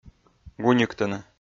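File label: "гуньыктыны", Komi-Zyrian, male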